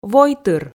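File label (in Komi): "войтыр", Komi, female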